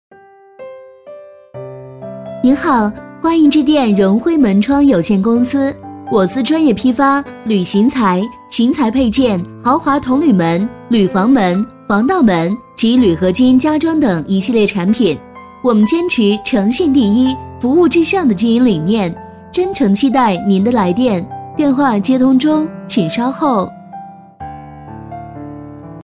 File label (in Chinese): 女 彩铃 门窗; 荣辉